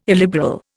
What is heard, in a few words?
"illiberal", Indian